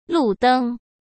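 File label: "路灯", Mandarin Chinese; chinese-flashcards; hsk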